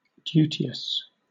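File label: "duteous", British English; pronunciation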